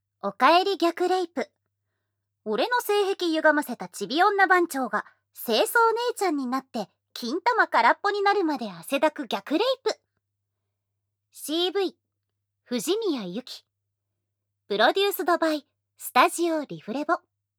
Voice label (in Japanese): タイトルコール, ASMR